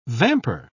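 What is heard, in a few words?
"vamper", British English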